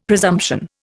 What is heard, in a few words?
"presumption", Indian; Dictionary